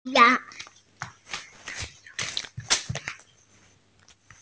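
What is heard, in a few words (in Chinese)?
"tai4yang2", Mandarin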